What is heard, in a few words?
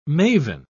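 "mavin", British English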